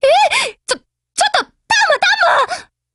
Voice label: BREAK JP; MP7